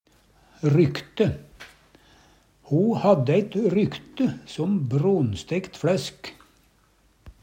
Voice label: "rykte", Numedalsmål

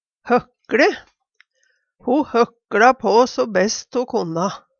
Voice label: hokLe, Numedalsmål; Ho høkLa i væg so gøtt ho konna.

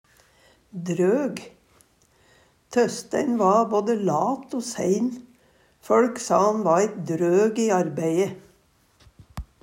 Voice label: "drog", Numedalsmål

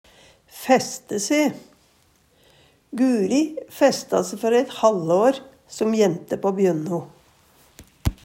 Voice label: fæste se, Numedalsmål; Uttrykk

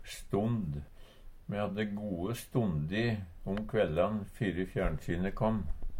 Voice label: stond, Numedalsmål

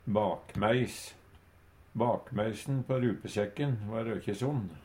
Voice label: "bakmeis", Numedalsmål; Substantiv hankjønn; Personleg utstyr, klede, sko; Bakmeisen på rupesekken va røkje sond

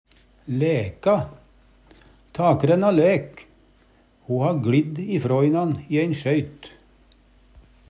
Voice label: laeka, Numedalsmål; Takrenna læk, ho ha gLidd ifrå inan i ein sjøyt.

